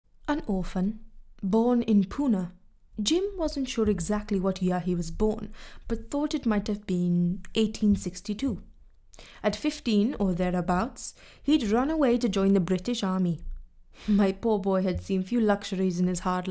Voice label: female English Welsh Indian Hindi English with light Indian accent; monologue